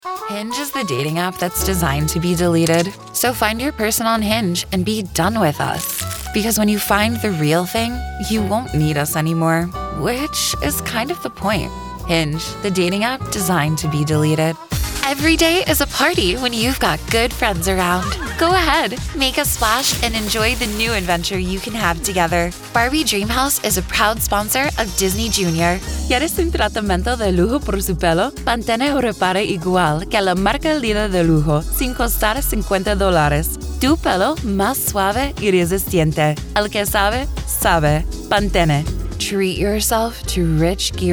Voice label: Commercial Reel